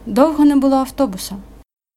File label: dialogue, Ukrainian